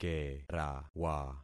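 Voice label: "kerawa", concatenated syllables; Affixing experiment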